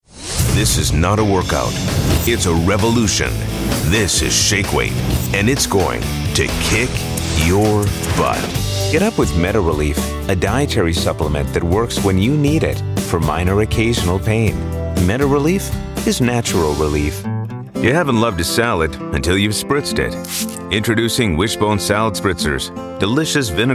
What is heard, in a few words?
Commercial Mix